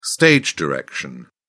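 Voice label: "stage direction", British English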